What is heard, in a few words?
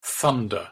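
"thunder", English pronunciation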